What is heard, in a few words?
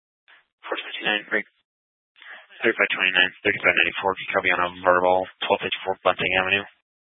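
Police 1 Dispatch, Scanner audio recording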